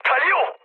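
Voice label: Korean enemy detected mood med, speech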